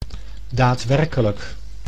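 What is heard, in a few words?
"daadwerkelijk", Dutch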